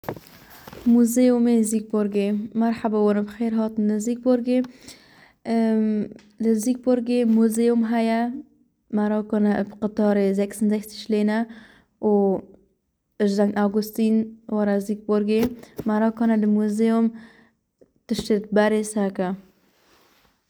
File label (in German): kurdisch